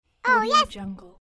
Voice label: cartoon voice "oh yes"